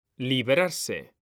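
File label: "librarse", Spanish, male; mekanta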